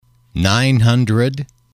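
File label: "nine hundred", English, male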